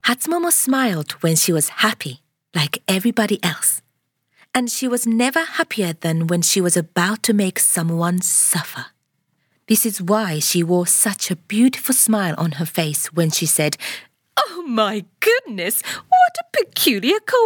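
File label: English Narration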